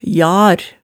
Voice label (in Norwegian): "jar", hemsedalsmaalet